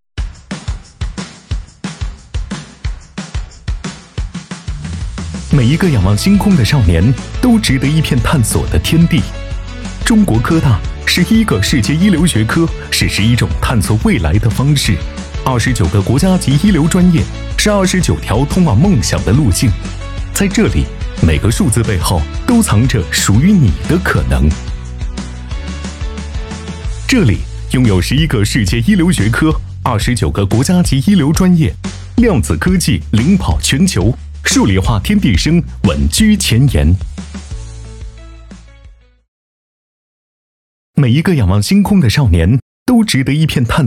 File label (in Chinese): 男, 宣传片 青春活力 招生宣传; 中国科大